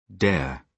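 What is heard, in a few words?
"dare", British English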